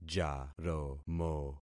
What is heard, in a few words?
"jaromo", concat syllables; Affixing experiment